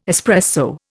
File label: "espresso", Indian